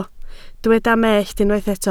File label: "mellt", Welsh